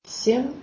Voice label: "7", Belarusian

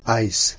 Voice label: "aes" Latin

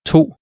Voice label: "2" Danish

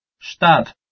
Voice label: "shtat", Albanian

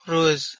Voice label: "ruz", Tajik

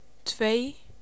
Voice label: Faroese

